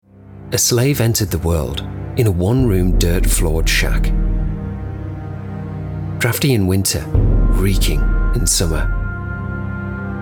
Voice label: documentary